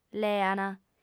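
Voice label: "leant", Sardinian, female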